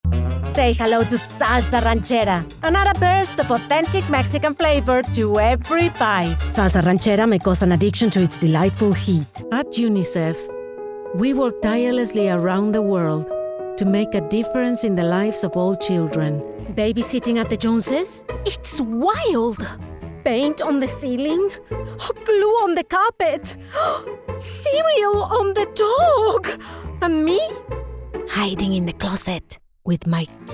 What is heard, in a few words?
English Comercial; Mexican female VoiceOver artist and actor; bilingual